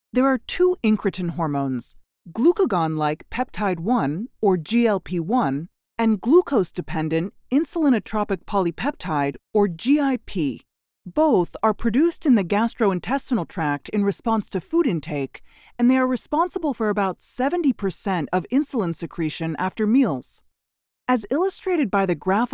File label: medical narration